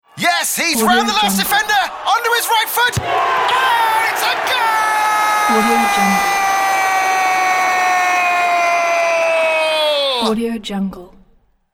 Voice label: football commentary with goal scored

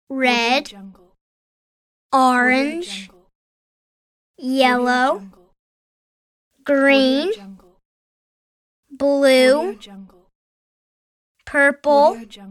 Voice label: young boy naming colors pack

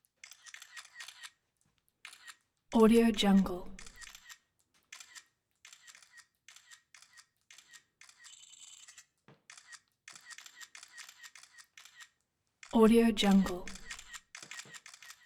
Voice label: iphone press conference; collanderson

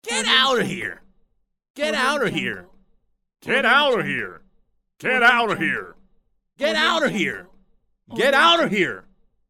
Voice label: male saying get out of here

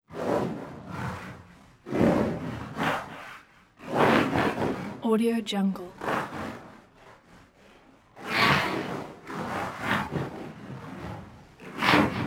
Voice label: low pitched alien voice; mountainaudio

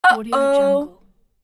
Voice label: uh oh

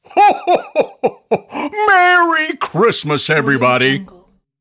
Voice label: santa merry christmas everybody

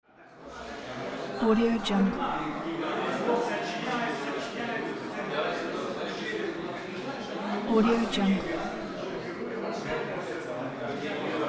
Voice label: people talking

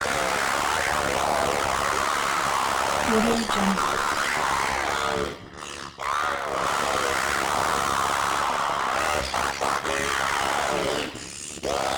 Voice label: robot scream processed continuous yelling; rizzy; prosoundfx

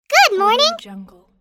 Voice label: cartoon girl "good morning"

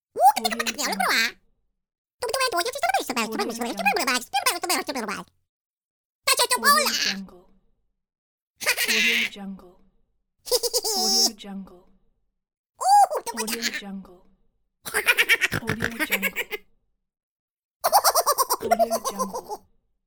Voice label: cartoon minion voices

